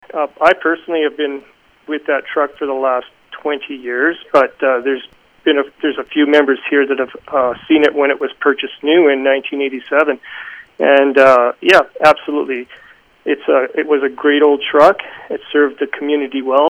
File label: truck sad